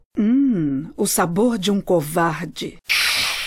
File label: voice portugues brasileiro brazilian portuguese; nidalee; league of legends